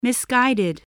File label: "misguided", voice, spelling